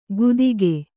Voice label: "ಗುದಿಕೆ", Kannada

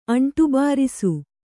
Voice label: "ಅಂಟುಬಾರಿಸು", Kannada

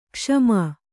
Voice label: "ಕ್ಷಮ", Kannada

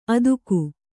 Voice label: "ಅತ್ತಿಗೆ", Kannada

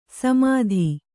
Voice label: "ಸಮೆತ", Kannada

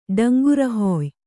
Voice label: "ಡಂಗುರಹುಯ್ಯು", Kannada

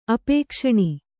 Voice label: "ಅಪೇಕ್ಷಣಿ", Kannada; apēkṣaṇi; noun; a woman desirous of:.

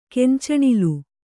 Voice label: "ಕಾಂಚನಾಲ", Kannada

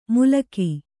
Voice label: "ಮುಲಕಿ", kannada

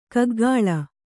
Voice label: "ಕೂಕಿಲು", Kannada

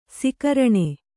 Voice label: "ಸಕಾರಣ", Kannada

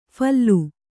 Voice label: "ಫೈಲು", Kannada; phallu; ಫಲ್ಲು; noun

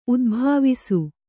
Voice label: "ಉದುಭವಿಸು", Kannada

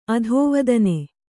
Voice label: "ಅಧಿವೇದನ", Kannada

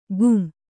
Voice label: "ಘುಂ", Kannada

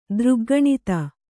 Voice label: "ದೃಗ್ಗಣಿತ", kannada

